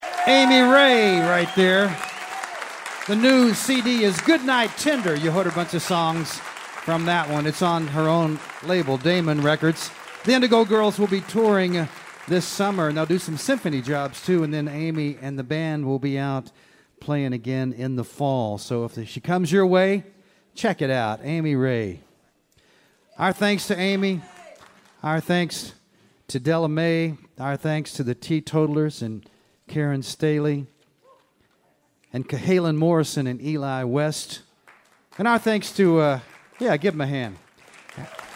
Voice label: announcer; mountain stage radio show - virginia thomas law cen